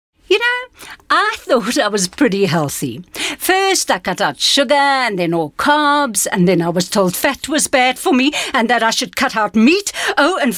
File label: English Excited